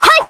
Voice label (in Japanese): poke jp